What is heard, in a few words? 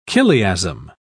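"chiliasm", British English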